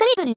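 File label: "triple", voice; Techmino; rin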